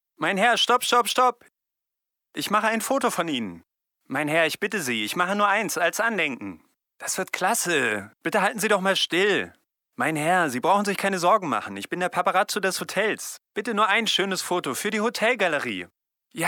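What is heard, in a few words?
german male character; voice demos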